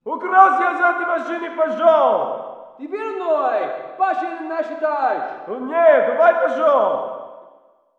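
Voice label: walla rus mountain conversation, Russian; Call of Duty Modern Warfare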